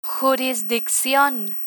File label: "jurisdiccion", Spanish